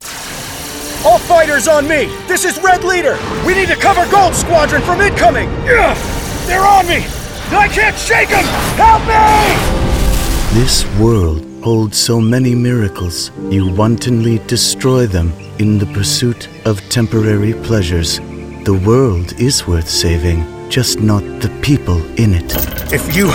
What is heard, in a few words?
Animation